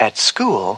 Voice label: at school announcer

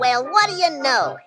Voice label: "well what do you know", cartoon; Voice

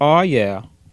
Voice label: "ah yeah", Voice

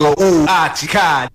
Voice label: "go ooh awcheekah", voice; ooh ahh

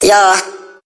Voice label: "yo", cartoon; ooh ahh

